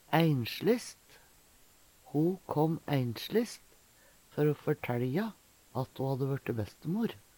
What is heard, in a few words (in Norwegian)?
"einsjlist", Numedalsmål; Ho kåm einsjlist før o førtælja at ho hadde vørte bestemor.